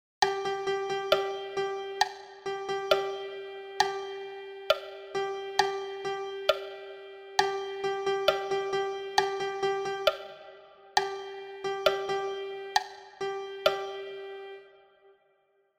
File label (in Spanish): dictado